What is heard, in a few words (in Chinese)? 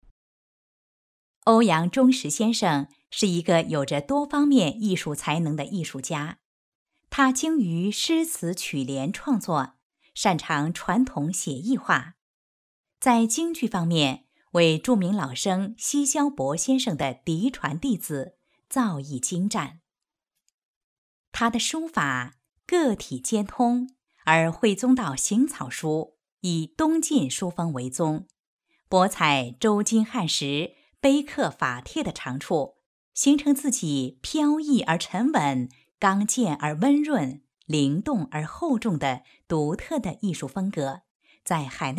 专题女国, 稳重大方; 专题片配音